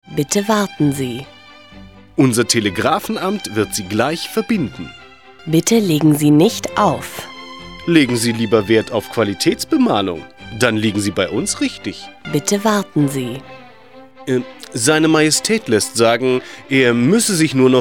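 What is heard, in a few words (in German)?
deutsch, Telefonansagen männlich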